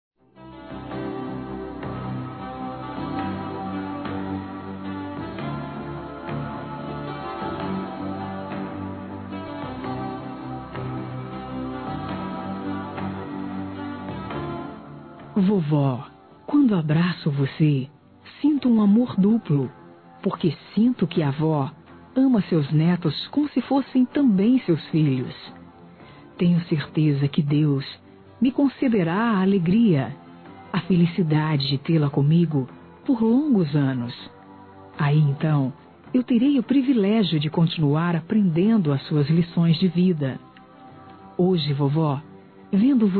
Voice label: Aniversário Avó Feminino; Aniversários; Aniv Familiar

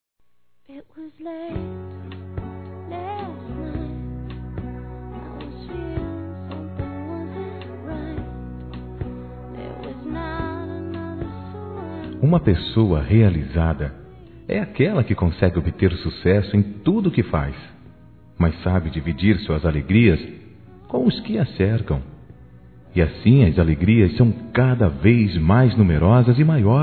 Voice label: Aniversário Primo Prima Masculino, Telemensagens; Aniversários; Aniv Familiar

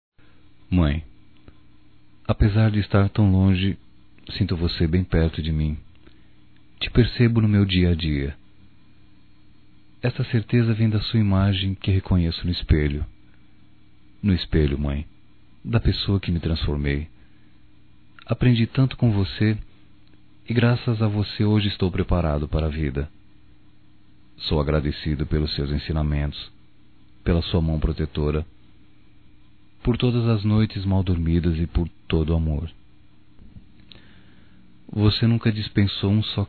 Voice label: HOMENAGEM - M; Dia das Mães; Telemensagens 2017

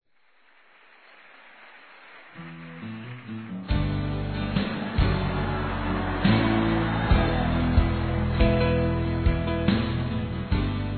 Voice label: Avó Masculino; Dia das Mães; Telemensagens 2017

